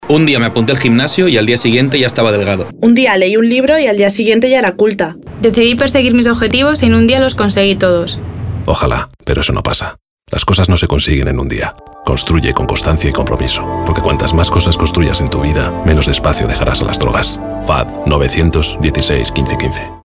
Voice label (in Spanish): CAmpañas Radio; Construye Constancia